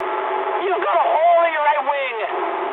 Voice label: eng right wing mood high, speech eng; War Thunder